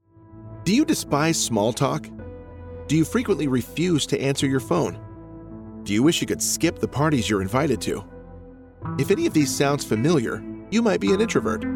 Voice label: Audiobooks